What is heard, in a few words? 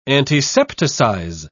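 "antisepticize", British English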